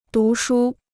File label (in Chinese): "读书", Chinese